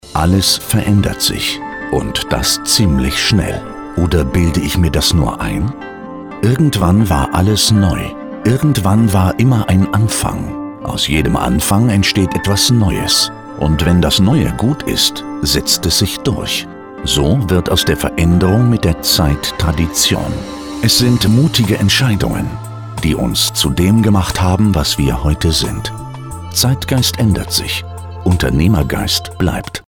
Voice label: elearning tutorial MOTIVATIONAL TRAINING